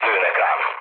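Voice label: Hungarian aircraft damaged mood low, speech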